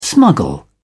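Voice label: "smuggle", British English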